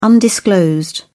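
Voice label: "undisclosed", British English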